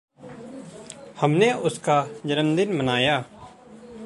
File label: Hindi